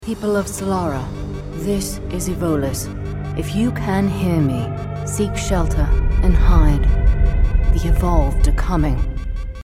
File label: Animation; EVOLIS